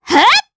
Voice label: Vox Attack jp; voices; heroes; Pansirone; kings raid